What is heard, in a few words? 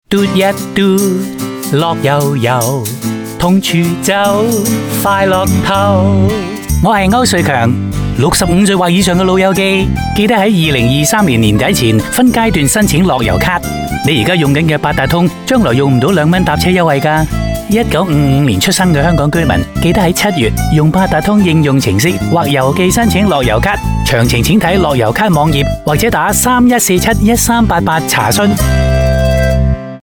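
Cantonese; fare concession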